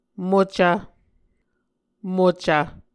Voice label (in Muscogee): "mocv", Muscogee